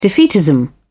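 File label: "defeatism", British English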